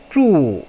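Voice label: "zhu4", Chinese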